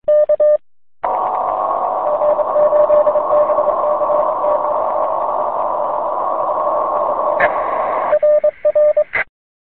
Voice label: qso